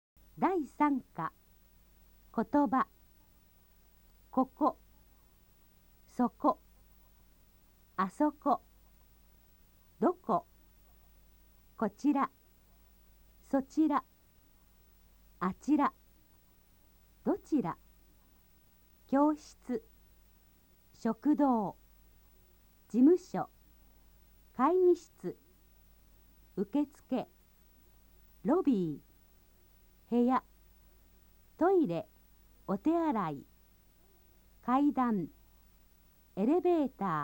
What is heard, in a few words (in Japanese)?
nihongo vokabeln